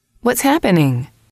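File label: whats happening, English, female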